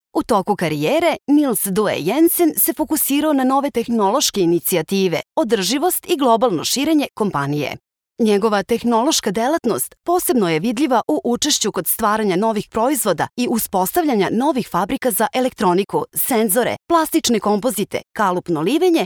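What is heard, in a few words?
Serbian, female, corporate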